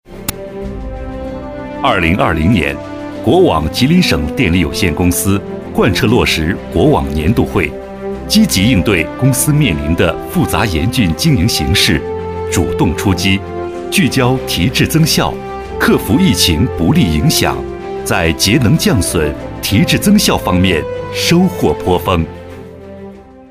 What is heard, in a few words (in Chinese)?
企事业 汇报片